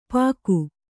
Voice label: "ಪಾಗು", Kannada